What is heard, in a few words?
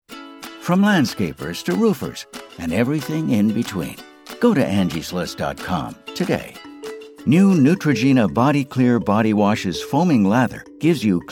Commercial Allsorts